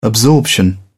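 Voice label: "absorption", British English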